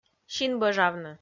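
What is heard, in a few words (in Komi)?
"синбӧжавны", Komi, female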